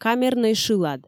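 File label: "камернӧй шылад", Komi, female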